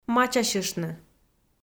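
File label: "мачасьыштны", Komi, female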